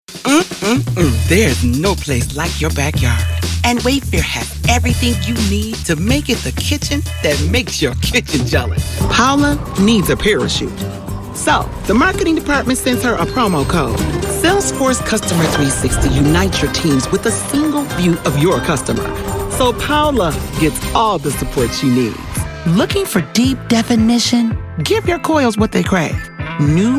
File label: Black Female Commercial; Demo